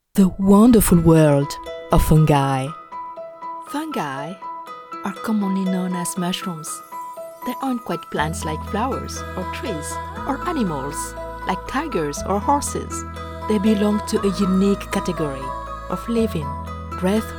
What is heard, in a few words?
Elearning Children Mystery, Cheerful; Fungy